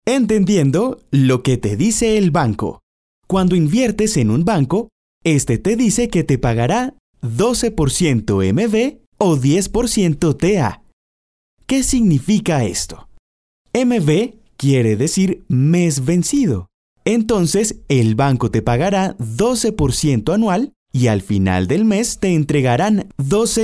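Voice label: e-learning, Colombian Spanish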